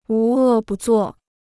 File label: "无恶不作", Chinese